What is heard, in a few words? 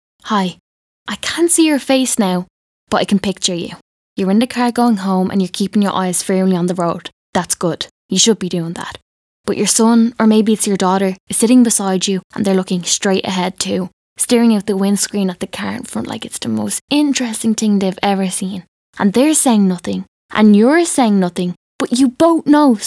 radio